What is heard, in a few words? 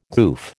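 "goof", American English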